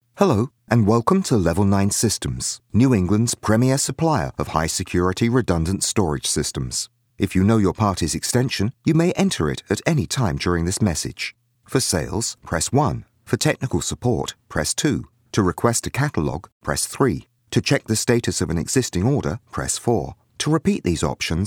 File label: IVR MOH; Telephony; Corporate